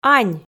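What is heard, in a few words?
"ань" Komi, female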